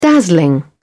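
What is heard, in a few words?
"dazzling", en, British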